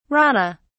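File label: "rana", British English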